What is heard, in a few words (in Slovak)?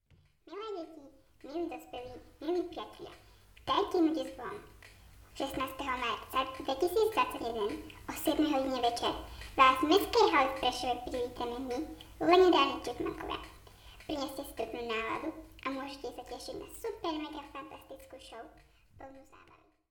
reklama